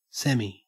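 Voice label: "semi", Australian English; pronunciations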